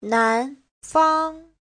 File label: "nan2fang1", chinese; 男方; Giáo trình chuẩn HSK3